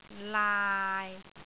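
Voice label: "laai6", Cantonese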